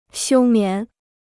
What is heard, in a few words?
"休眠", Chinese